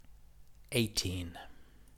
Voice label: "eighteen", English